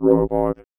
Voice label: VOICEFX ELCTR 140; Techno2